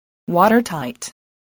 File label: "watertight", spelling